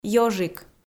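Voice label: "ёжик", Komi, female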